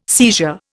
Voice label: "seizure", Indian